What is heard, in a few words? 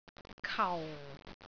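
"kau4", Cantonese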